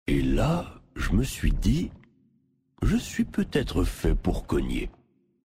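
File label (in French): French Male Blague; Cogner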